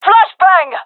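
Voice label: throwing flashbang, female; vo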